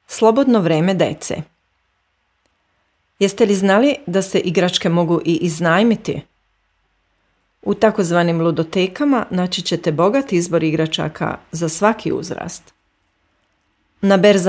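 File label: Serbian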